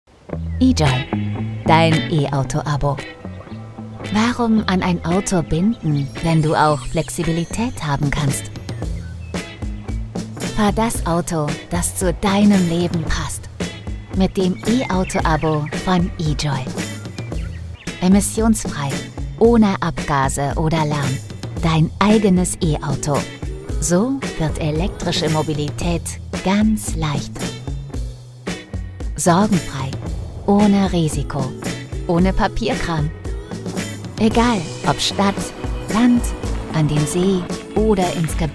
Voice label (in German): spot; e-joy; Muttersprache Deutsch, Englisch/UK, Englisch/US-Amerik